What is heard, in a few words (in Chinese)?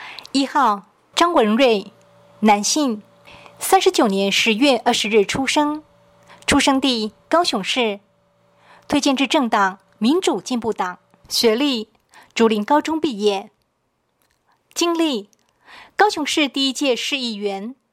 國語; 第二選區